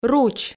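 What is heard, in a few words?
"руч", Komi, female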